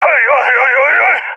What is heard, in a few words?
scared; vo; agents